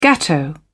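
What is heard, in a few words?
"gateau", British English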